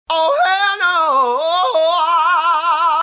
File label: lock chime, "Oh Hell Nah"